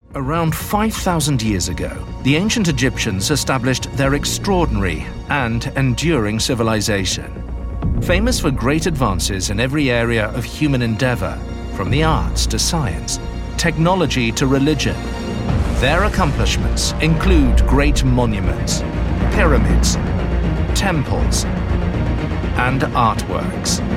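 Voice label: English UK, Documentary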